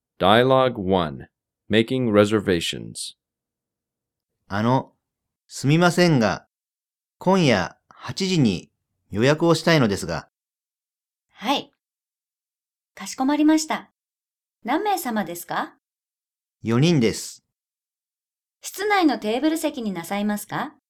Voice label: restaurant, Japanese

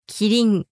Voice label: "kirin", Japanese; giraffe

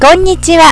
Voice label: "konnichiwa", Japanese

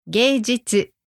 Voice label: "geijutsu", Japanese; the arts

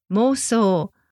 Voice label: "mousou", Japanese; delusion

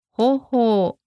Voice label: "houhou", Japanese; method way

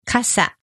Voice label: "kasa", Japanese

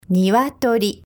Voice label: "niwatori", Japanese

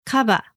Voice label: "kaba", Japanese

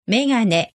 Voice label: "megane", Japanese

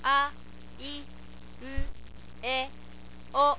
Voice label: "aiueo", Japanese

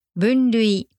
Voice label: "bunrui", Japanese; classification categorization

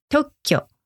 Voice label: "tokkyo", Japanese; patent

